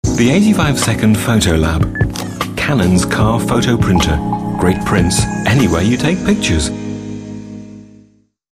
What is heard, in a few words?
informative